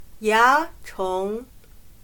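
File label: "蚜虫", Chinese; 棉花姑娘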